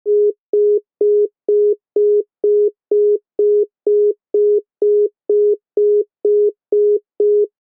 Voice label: not available phone